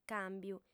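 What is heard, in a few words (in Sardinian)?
"càmbiu", female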